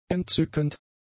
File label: "entzückend", German; adjectives